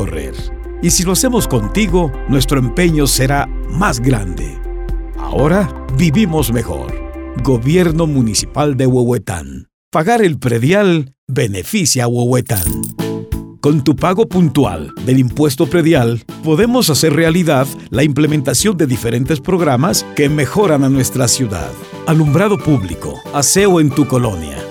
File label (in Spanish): Locutor para gobiernos